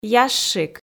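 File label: "ящик", Komi, female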